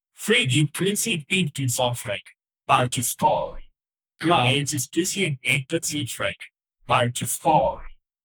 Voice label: narrator excited transform, voiceover

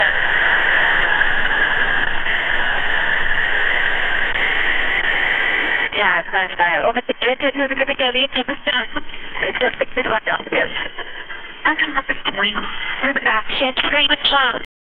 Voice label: scary young female voice

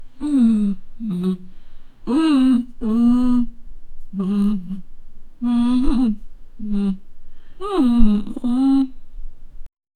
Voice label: unpleased and angry woman, customer

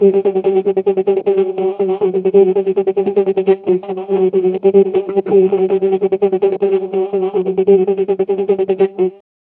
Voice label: multiple people repeating; human vocal

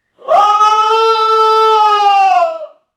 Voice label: screaming lets go deeply

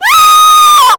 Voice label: a comical high pitched, goblin

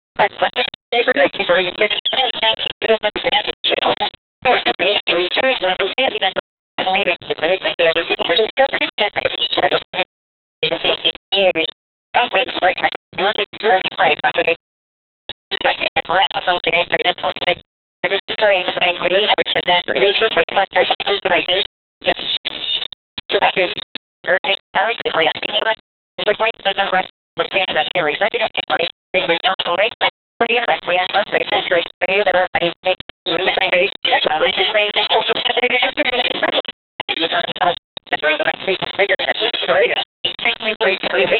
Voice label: 8bit voice

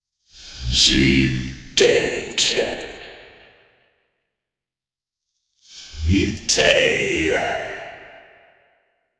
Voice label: a demon said halloweeeeen

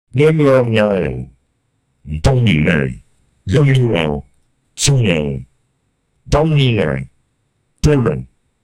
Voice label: demonic language speech

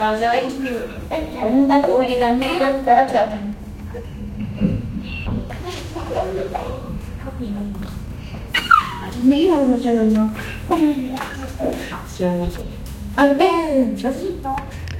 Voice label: woman talking in the back; female voice

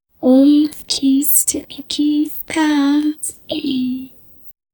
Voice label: atmospheric words of a, dreamy

